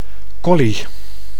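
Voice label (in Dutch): "collie", Dutch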